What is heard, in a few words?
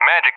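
"Magic", English, USA; CallSign; DCS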